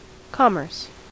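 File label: "commerce", American English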